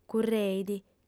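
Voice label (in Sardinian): "curreit", female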